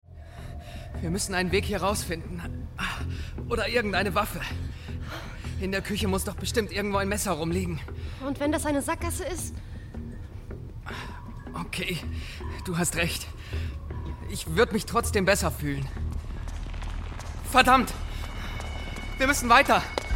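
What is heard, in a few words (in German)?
Hörspiel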